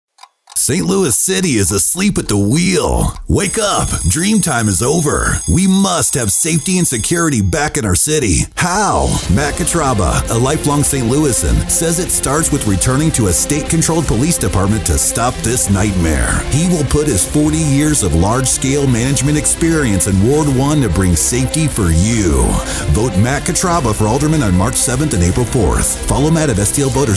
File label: Spot; Kotraba